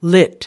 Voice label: "lit", us